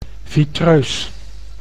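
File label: "vitreus", Dutch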